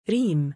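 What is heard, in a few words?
female name "riim", Arabic; رِيم; rīm; リーム; Rim、Riim、Reem; 純白のカモシカ、レイヨウ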